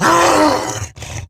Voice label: Attack Grineer Wolf, en; Battle Barks